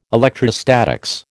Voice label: "electrostatics", American